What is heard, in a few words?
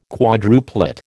"quadruplet", American